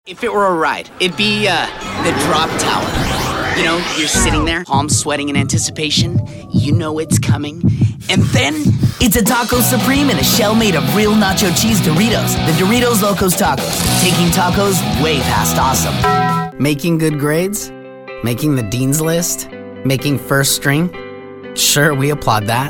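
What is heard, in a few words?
Commercial English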